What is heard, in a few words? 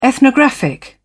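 "ethnographic", British English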